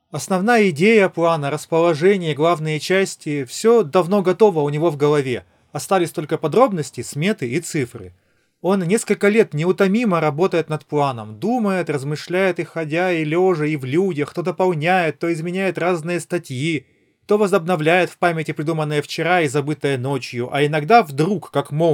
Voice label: Recorder; Запись на профессиональный рекордер; Anker Soundcore P40i